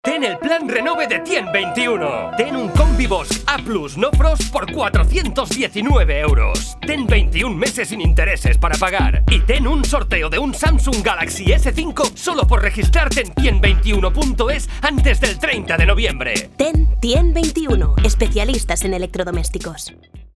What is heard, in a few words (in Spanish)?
cuña